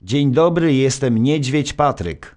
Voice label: narr; Szkola Koziolka; CyberMycha 2003 October

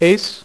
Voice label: "ace", dealer's speech; PowerPOKER Demo

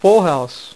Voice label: "FULL HOUSE", Dealer's Speech; PowerPOKER Demo

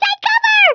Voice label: "take cover", American; Speech; International

